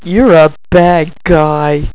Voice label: BAD GUY